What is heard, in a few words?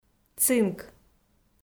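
"цинк", Komi, female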